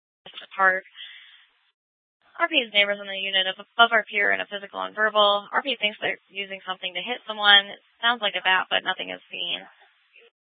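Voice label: Police Department Dispatch, scanner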